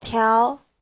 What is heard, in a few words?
"tiao2", Chinese; Giáo trình Hán ngữ